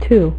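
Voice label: "two", female; MacCribbage; InterStellar MacCribbage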